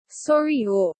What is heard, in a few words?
"Sori ho", filipino language